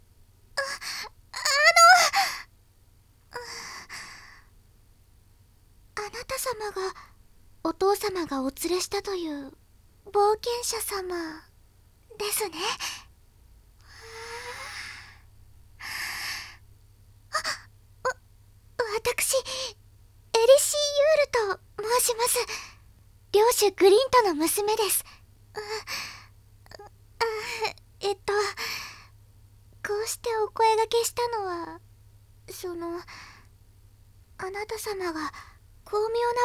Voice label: 小動物系少女; エリシィ

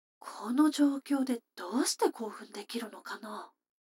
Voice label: 女の子台詞

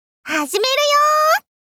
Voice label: はじめるよ～！ 少女 高音域

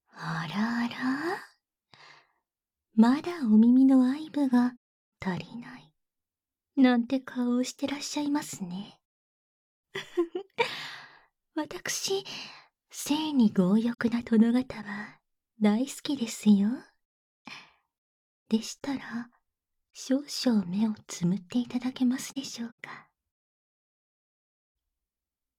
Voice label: 耳媚薬責めカウントダウン（バイノーラルマイク 効果音なし）

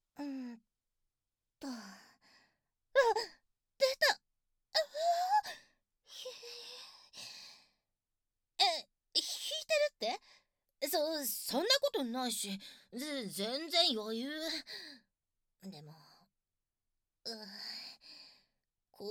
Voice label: フェラチオされる女装男子 台詞のみ

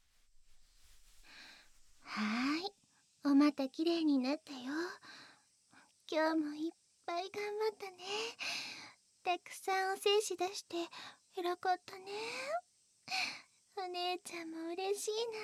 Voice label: お姉ちゃんのささやきとペロペロマッサージ; おやすみなさい

